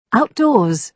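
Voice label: "outdoors", British English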